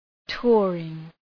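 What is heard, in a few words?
"touring", English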